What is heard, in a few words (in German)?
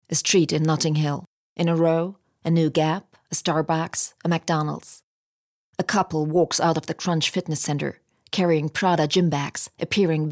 uk englisch